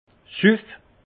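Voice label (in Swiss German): "suff", alsace Haut-Rhin; vin; bois impératif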